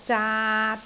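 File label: "zaat3", Cantonese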